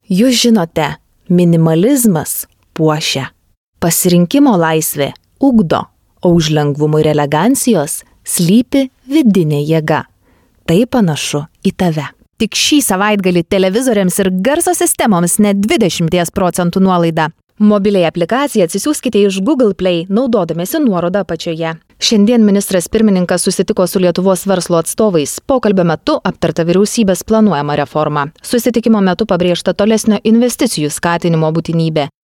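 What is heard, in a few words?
Lithuanian, female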